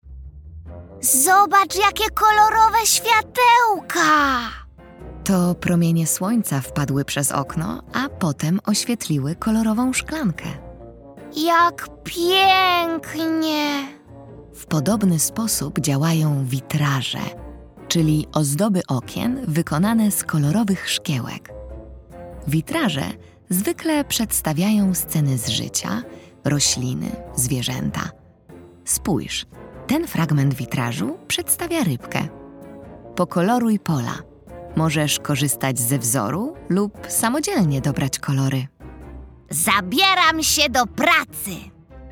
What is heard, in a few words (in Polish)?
narracja; czasopismo mis; wiosna; do dziela rozmowa